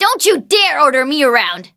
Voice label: levelup en; Cookie Run

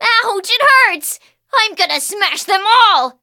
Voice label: defeat, en; cookie run

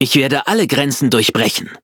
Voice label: levelup, de

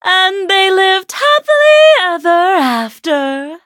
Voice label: loading, en; cookie run

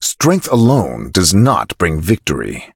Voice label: level up, en

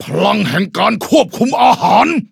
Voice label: level up, th; cookierunwiki

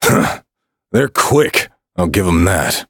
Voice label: defeat, en; Cookie Run

